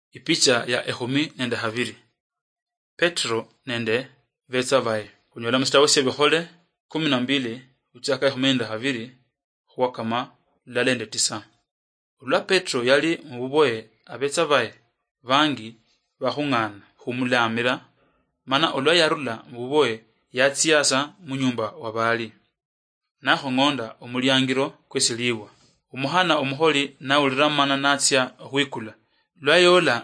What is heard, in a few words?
acts of the holy spirit luyia marachi, drama